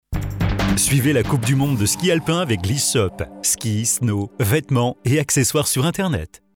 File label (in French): sponsor voix off; radio